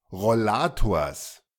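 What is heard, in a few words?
"Rollators", German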